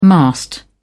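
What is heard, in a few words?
"mast", British English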